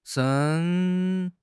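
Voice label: "shen1", Chinese; HSK; HSK4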